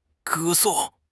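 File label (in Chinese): "歌颂", Chinese; HSK6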